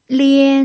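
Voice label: "lian", Mandarin Chinese pinyin, tone 1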